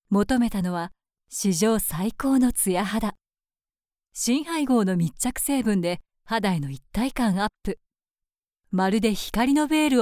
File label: female